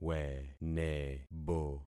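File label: "wenebo", concatenated syllables; Affixing experiment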